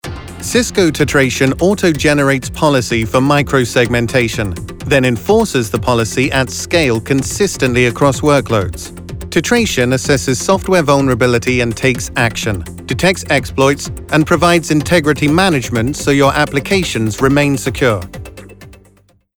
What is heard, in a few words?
Tech, british elearning voiceover